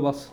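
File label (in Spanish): "pasa", Cuba, Havanna; Kuba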